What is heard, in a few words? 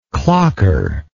"clocker", English